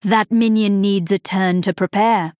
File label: Female NightElf ERROR SUMMON SICKNESS